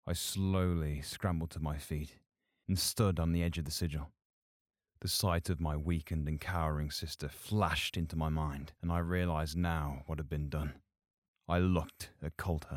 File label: Narration